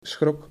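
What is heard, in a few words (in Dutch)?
"schrok", Dutch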